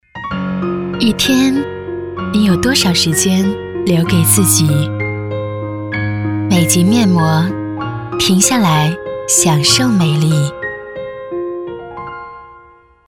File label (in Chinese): 女国 广告 化妆品 面膜 时尚; 美即面膜